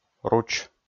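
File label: "руч", Komi, male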